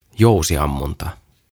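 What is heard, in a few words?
"Jousiammunta", "Archery", Finnish, English; OTAVA KONSERNI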